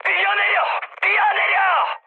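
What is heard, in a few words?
Korean bailout mood high, speech; War Thunder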